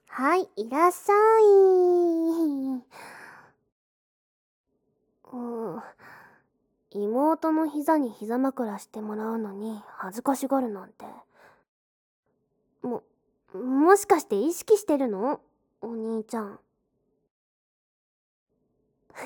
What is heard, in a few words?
tsundere, ASMR